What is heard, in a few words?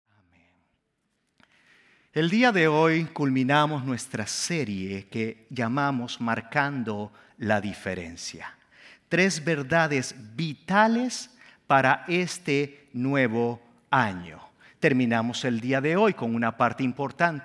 SERMON SPA